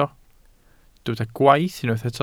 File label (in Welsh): "gwaith" Welsh